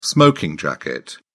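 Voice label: "smoking jacket", British English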